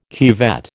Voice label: "cuvette", American

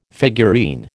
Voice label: "figurine", American

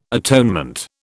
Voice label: "atonement", British

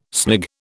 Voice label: "snig", British

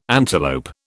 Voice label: "antelope", British

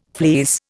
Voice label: "fleece", Indian

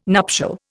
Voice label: "nuptial", Indian

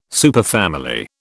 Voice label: "superfamily", British

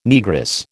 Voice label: "Negress", American

